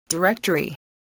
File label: "directory", english spelling